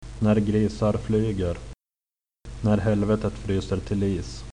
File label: pigs, swedish